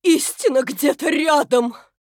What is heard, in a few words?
Female Human Play